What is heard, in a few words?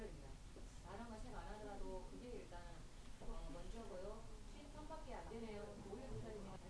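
"gentle", spoken word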